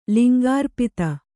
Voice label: "ಲಿಂಗಾರ್ಪಿತ", Kannada

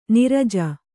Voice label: "ನಿರಜೆ", Kannada

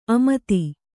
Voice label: "ಆಮೋದ", Kannada

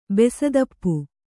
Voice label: "ಬೆಸದಪ್ಪು", kannada

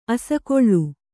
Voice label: "ಅಸಿಗಲ್ಲು", kannada

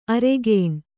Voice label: "ಅರಗಿಣಿ", Kannada

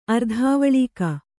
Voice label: "ಅರ್ಧಾವಲೀಕ", Kannada

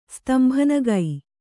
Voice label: "ಸ್ತಂಭನಗೈ", Kannada

